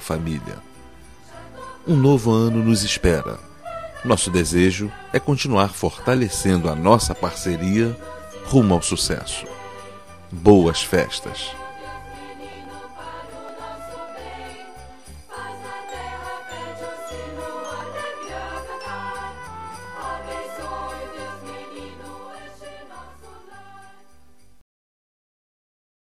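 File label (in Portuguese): Feliz Natal Com Agradecimento a Cliente, male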